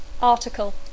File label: "article", English; Dacco